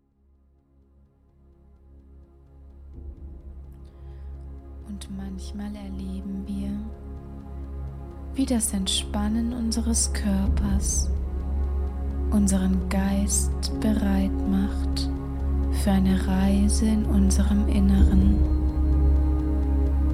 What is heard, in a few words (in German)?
sprecherin